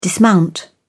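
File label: "dismount", English, British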